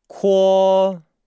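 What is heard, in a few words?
"kuo", Chinese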